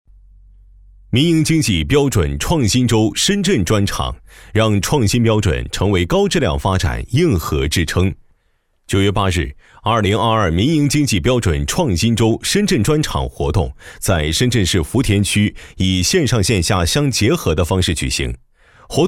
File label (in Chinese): 男 新闻, 年轻浑厚 自然诉说; 民营经济